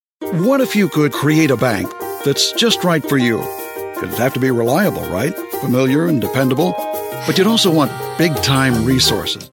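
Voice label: us com bank, American English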